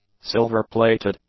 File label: "silver-plated", English; adjectives